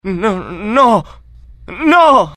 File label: fear, IT; dialogue; mohaa